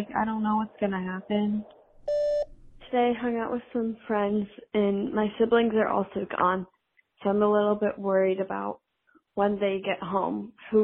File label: corona voicemail